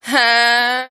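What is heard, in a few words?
"huh", vocal sample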